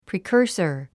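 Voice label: "precursor", English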